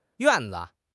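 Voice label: "yuan4 zi5", Chinese; 汉语口语新时代-初级下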